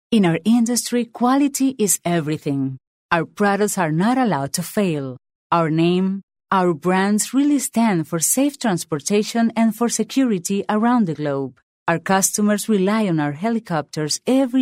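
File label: Spanish Latin America female English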